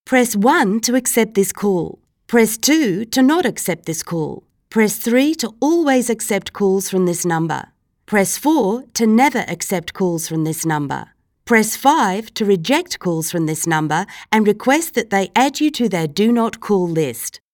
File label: australian english Accept Call Navigation